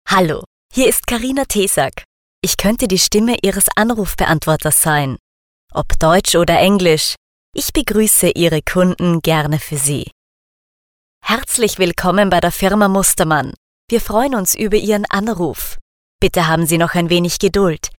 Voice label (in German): Sprecher Telefonansagen